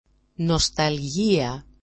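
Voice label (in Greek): "nostaljia", Greek; νoσταλγία; nostalgia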